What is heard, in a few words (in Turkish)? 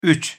"üç", Turkish